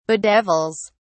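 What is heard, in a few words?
"bedevils", English, British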